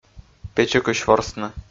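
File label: "печикысь ворсны", Komi, male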